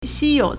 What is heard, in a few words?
"xi1you3", Chinese